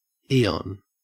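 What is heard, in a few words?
"eon", Australian English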